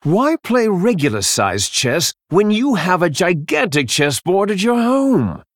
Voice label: Male Human Flavor

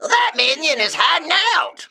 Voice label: Male Mech error stealth

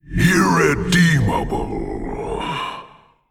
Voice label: Male Old God Creature Attack

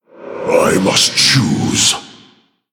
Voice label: Male Orc Time

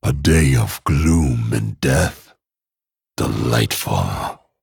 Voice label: Male Dragon happy Halloween

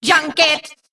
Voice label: Female Mech Attack

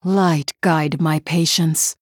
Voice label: Female Human Thinking

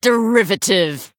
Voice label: Female Blood Elf Attack

